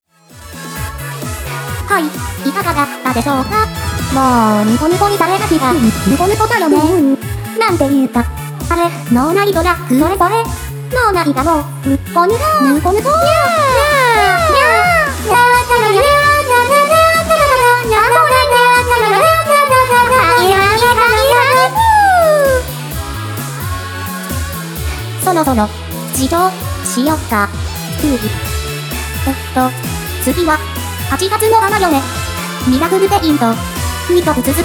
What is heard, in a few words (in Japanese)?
フリートーク; Vocaloid; Hatsune Miku; みくのかんづめ